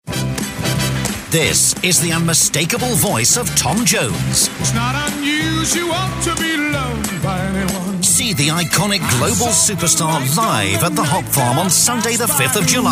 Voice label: concert promo